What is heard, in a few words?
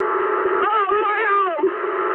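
damaged pilot mood high, speech English; War Thunder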